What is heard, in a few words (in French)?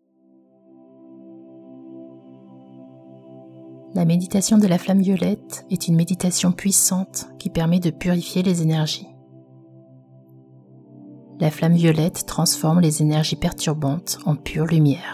meditation du soir; flamme violette purification energies